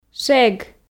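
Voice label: "шег", Komi, female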